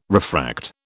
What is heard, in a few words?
"refract", British